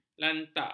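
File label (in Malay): "lantak", Malay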